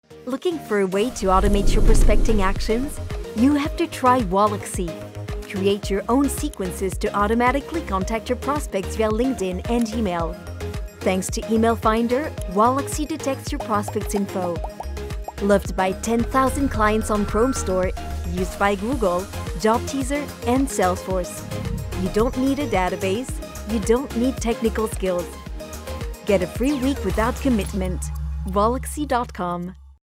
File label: EN pub web; Walaaxy